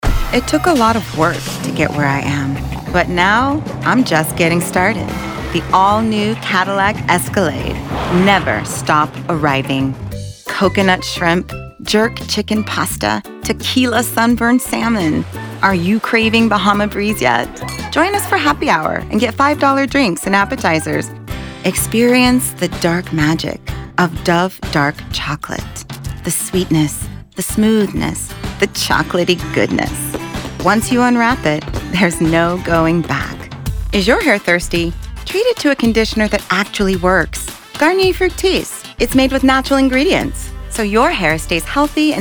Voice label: commercial